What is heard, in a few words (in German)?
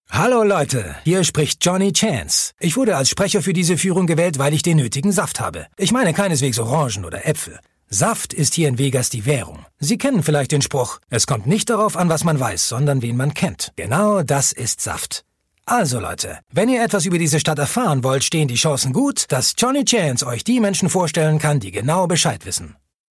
Audioguide; Saft